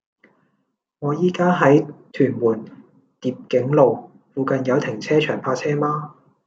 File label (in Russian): Голоса, Гонконгский